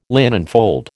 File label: "linenfold", American